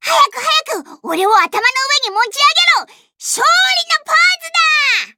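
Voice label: victory, Japanese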